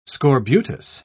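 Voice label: "scorbutus", British English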